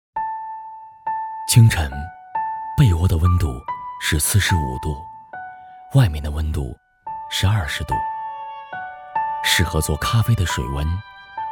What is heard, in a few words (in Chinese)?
男 餐饮咖啡 温情